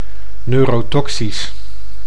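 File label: "neurotoxisch", Dutch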